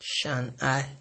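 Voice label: "shine eye", Nigerian Pidgin, male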